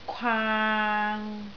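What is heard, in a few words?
"kwaang3", Cantonese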